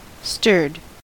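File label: "stirred", US English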